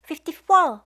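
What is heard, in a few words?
"fifty-four", English; eng suuji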